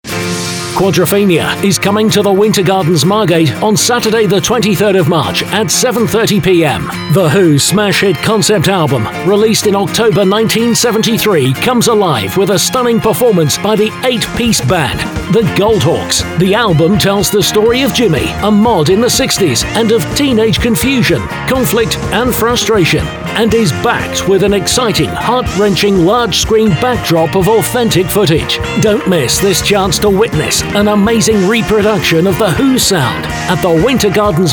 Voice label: Advert; Quadrophenia